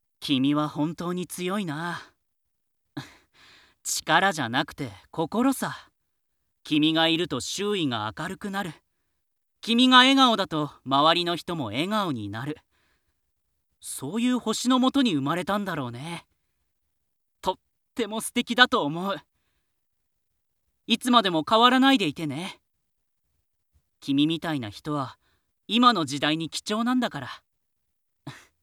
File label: male; 優しい青年